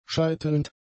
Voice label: "scheitelnd", german; adjectives